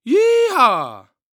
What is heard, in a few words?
Human Cowboy Say "Yee Haw" Voice